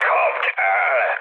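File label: attack position, mood high, Hungarian; War Thunder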